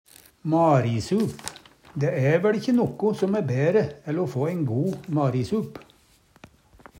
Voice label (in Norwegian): marisup, Numedalsmål; Substantiv hankjønn